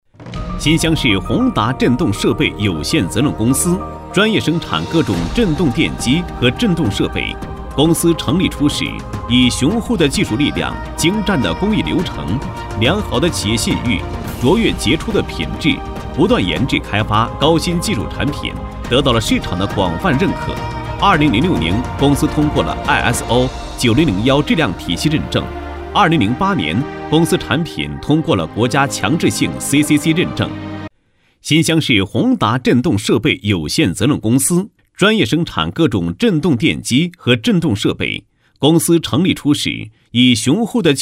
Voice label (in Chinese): 男声 公司广告; 国语男声配音; 高音经济型